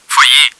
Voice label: foyer, german; csco; csgo; bot